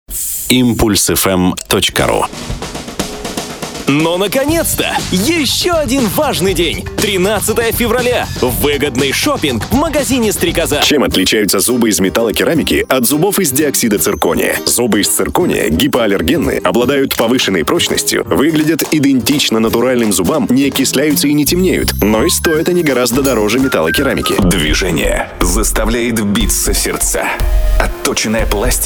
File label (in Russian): Мужские; Impulsefm